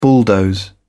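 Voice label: "bulldoze", British English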